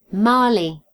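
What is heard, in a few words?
"Mali", British English; gcompris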